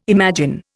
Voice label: "imagine", Indian